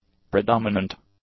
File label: "predominant", english; adjectives